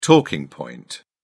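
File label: "talking point", British English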